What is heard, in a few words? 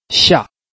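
"xia4", Mandarin Chinese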